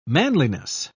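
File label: "manliness", British English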